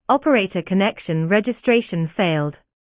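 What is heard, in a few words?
female British operator registration failed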